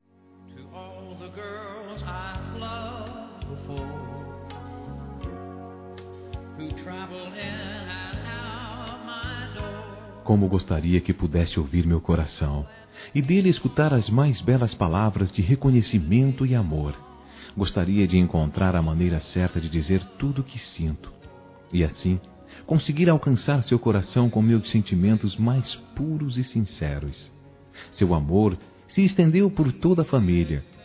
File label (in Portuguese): Aniversário Avó Masculino; Aniversários; Aniv Familiar